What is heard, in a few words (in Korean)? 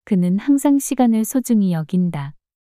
그는 항상 시간을 소중히 여긴다 문장 여자